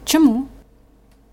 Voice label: dialogue, Ukrainian